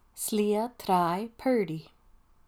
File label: Purdy Beach Lane, Gaelic